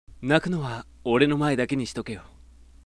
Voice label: sample sweet mid, voice